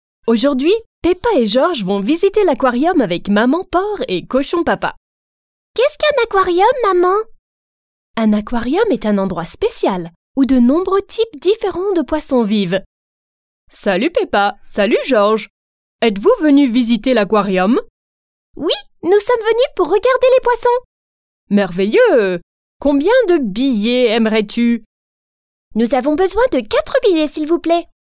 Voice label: French Children Cartoon Voices